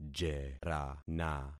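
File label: "jerana", concatenated syllables; Affixing experiment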